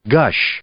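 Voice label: "gush", English, male; verb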